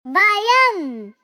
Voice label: Suara Ceria Anak "Baayam!"

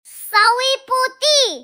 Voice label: Suara Ceria Anak Sawi Putih!

